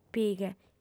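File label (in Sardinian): "pighe", female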